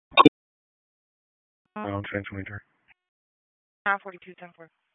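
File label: Dispatch 1, P25; WSO DISP 1; wake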